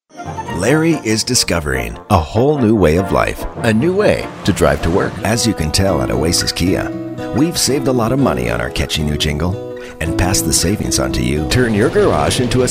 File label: voiceover montage